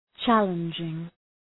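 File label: "challenging", English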